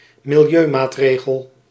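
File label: "milieumaatregel", Dutch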